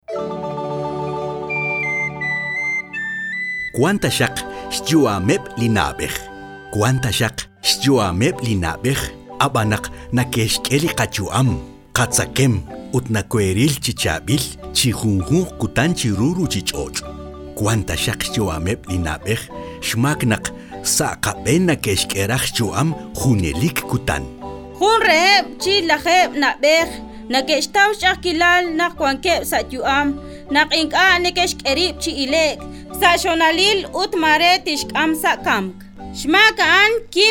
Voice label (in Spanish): Queqchi; salud Materna